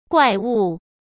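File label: "怪物", Mandarin Chinese; hsk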